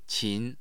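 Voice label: "qin", Mandarin Chinese, tone 2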